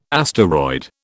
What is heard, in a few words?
"asteroid", British